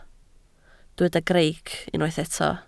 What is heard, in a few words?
"greic", Welsh